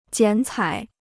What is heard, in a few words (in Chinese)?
"剪彩", Chinese